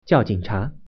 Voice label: "police", Mandarin

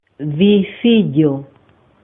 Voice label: cheers, swahili

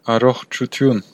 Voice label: cheers, armenian western

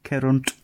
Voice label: go away, gwentian

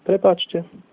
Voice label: excuse me, slovak

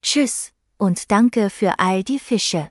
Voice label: "so long", German

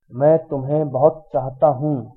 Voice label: i love you hindi

